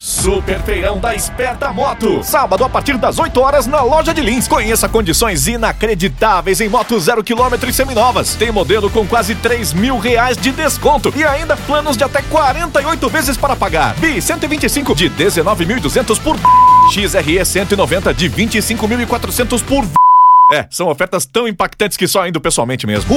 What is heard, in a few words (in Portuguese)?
spot radio feirao motos locucao publicitaria; sperta